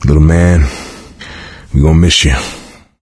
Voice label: survivor mourn mechanic, coach, survivor voice; source engine; left 4 dead 2